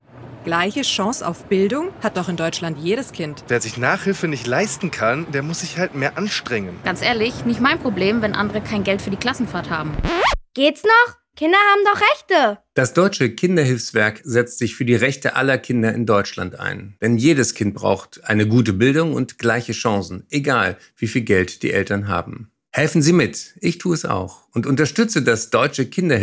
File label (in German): Radiospot; Eckart von Hirschhausen; DKHW; Bildung; 50 Jahre DKHW; Jubilaeumskampagne